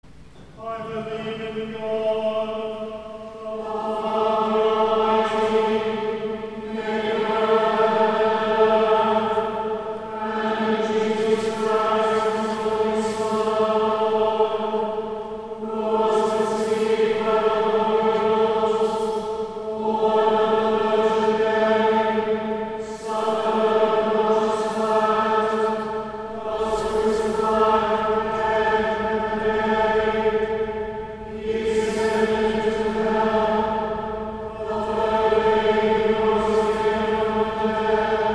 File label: Creed, monotoned